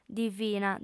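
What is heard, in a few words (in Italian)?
"divina", lingua sarda, female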